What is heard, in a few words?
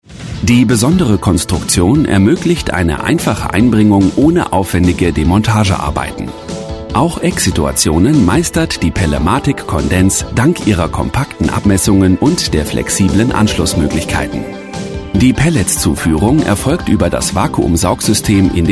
german voice over male commercial; BiberSA Production